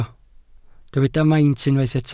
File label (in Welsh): "maint" Welsh